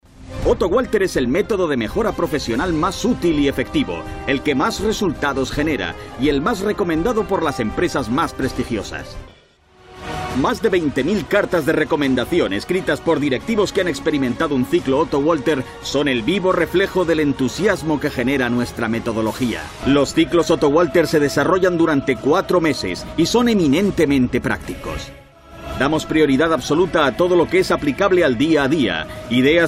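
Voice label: Spanish Spain male upbeat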